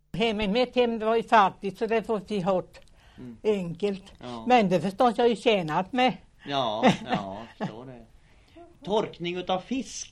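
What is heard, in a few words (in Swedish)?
Västra Ryd Östergötland, Svenska sagor, dialekter; Folkminnen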